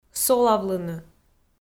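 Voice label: "солавлыны", Komi-Zyrian, female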